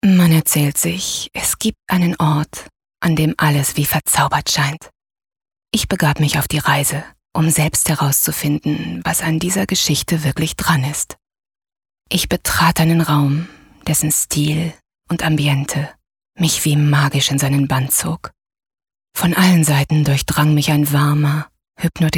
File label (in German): Schauspiel Sanft